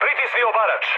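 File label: Serbian attack position mood med, speech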